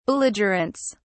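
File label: "belligerents", British English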